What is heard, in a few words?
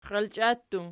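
"xialchia" plural, Archi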